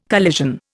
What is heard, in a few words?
"collision", Indian